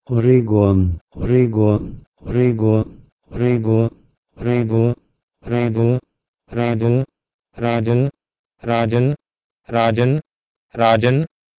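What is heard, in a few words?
Proto-Indo-European h3regh3on to Sanskrit raajan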